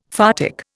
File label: "photic", Indian; Dictionary